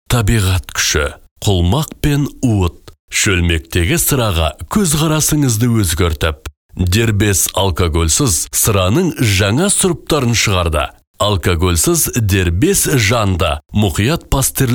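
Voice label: kazakh male commercial; voice demos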